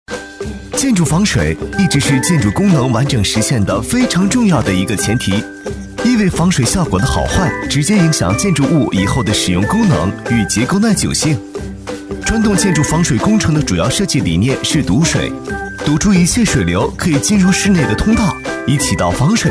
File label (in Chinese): 男 年轻轻松, 厚重有力 年轻时尚; 建筑防水; 飞碟MG